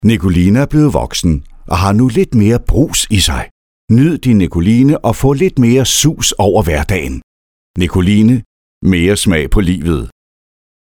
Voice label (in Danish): Reklame